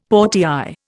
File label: "portière", Indian; Dictionary